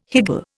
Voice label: "higgle", Indian